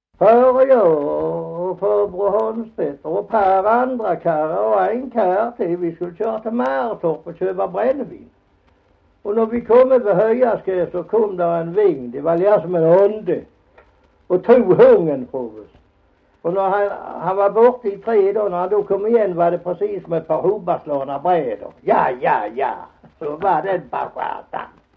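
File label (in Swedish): Hasslöv Halland, Svenska sagor dialekter; Folkminnen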